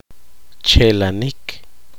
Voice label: poqomchi; recostar